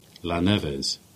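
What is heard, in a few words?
"Lanivet" Cornish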